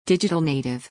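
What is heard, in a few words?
"digital native", English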